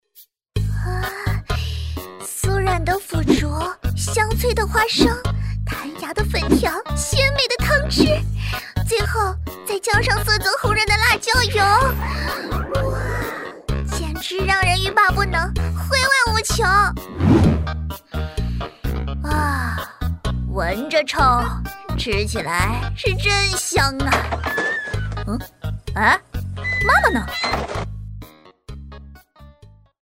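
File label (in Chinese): 女【伪音童声】搞怪男女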